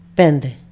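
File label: "pente", Ancient Greek; πέντε pénte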